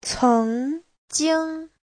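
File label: "céngjīng", Mandarin Chinese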